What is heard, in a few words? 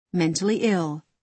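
"mentally ill", American English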